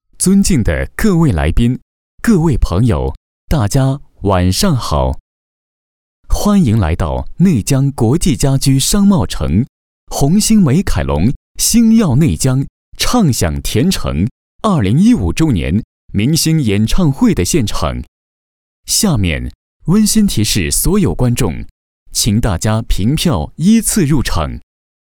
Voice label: 男 多媒体 广播提示 商贸城提示音

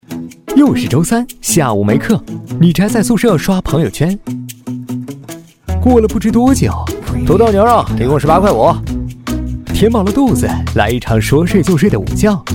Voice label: 宣传片 病毒, 男国; 飞碟说人民大学学务中心

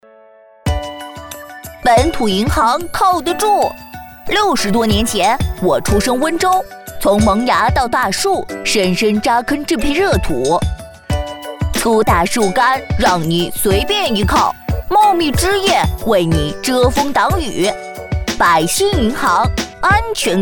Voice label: 动画 童声 男童

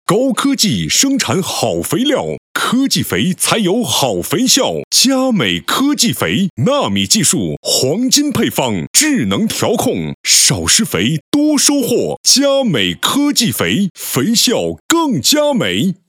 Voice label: 男 广告 成熟; 农化; 加美科技肥

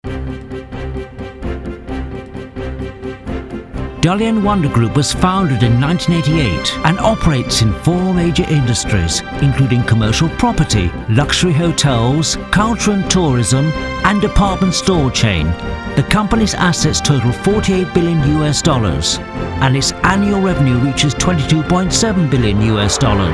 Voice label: 外籍男英 外语 英式英语 激情; 万达

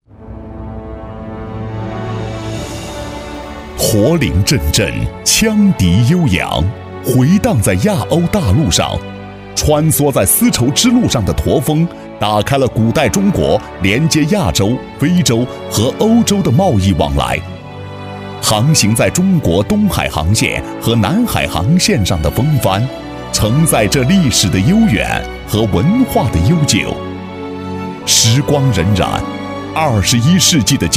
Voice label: 大气; 一带一路

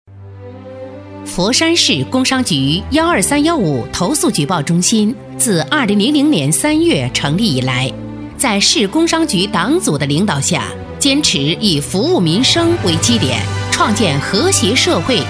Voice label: 专题 政府 投诉中心 大气, 女国

